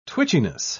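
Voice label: "twitchiness", English, British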